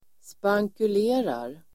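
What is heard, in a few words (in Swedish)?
"spankulerar", Swedish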